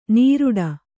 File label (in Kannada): "ನಿರೂಟ", Kannada